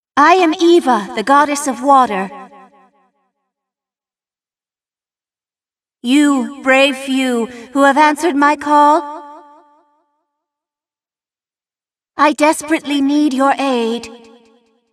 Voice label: cinematic voice; lineage2